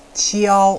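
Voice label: "qiao", Mandarin Chinese, first tone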